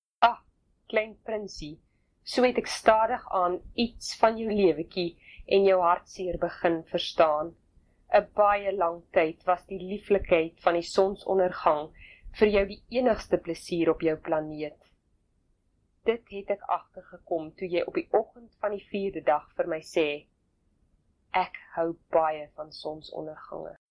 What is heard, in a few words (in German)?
Afrikaans Johannisburg